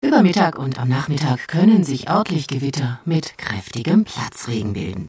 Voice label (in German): Ansage 32 Millisec delay